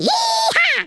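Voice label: "amazing", Redneck, male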